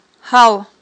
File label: "гьал", Aghul